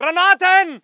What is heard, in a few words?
voice ger infantry; Red Orchestra 41-45